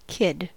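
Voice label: "kid", US English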